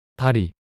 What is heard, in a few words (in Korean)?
"다리" 단어 남자